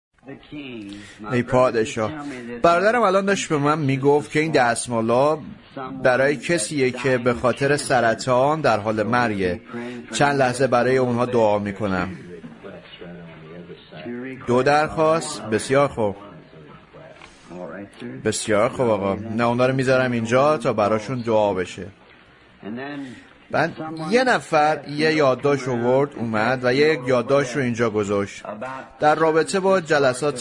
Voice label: Farsi Voice Over; Sardisean Church Age